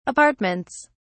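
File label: "apartments", British English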